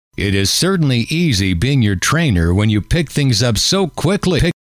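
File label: it is certainly easy being your trainer, male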